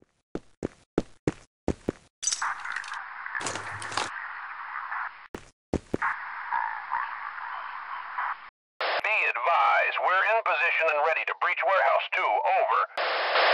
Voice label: grunts warn; fgrunt